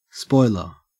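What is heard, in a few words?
"spoiler", Australian English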